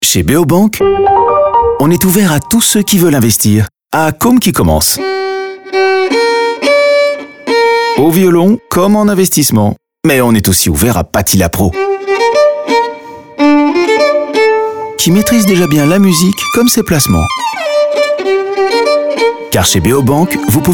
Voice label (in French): radio; Boondoggle Havas/Beobank; Ouvert à tous ceux qui veulent investir